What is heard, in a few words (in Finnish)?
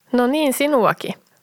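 "No niin sinuaki", Finnish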